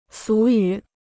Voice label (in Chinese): "俗语", Chinese